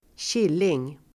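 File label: "killing", Swedish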